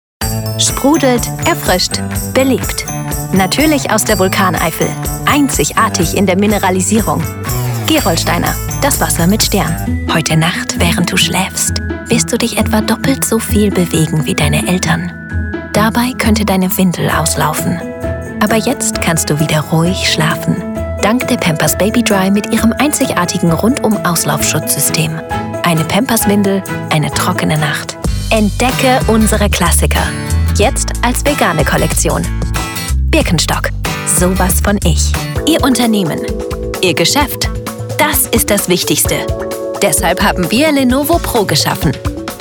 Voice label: Multilingual Female Voiceover German English French